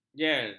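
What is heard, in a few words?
"jel", Malay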